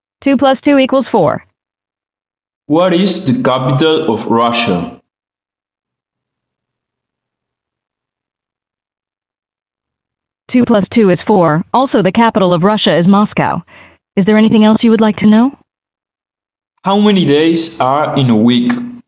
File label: english phone audio